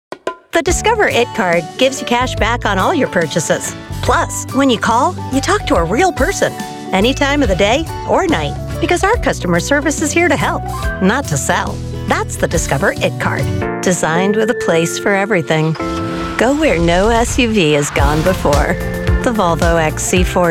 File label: Commercial